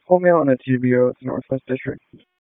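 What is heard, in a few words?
Raleigh Police Southwest District Dispatch; wake p25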